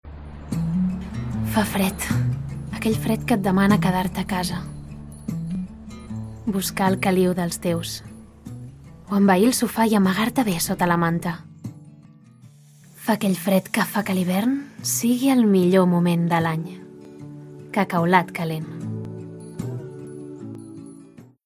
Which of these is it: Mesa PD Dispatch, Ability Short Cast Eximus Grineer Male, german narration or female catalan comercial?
female catalan comercial